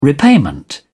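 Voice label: "repayment", British English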